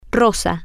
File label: "rosa", spanish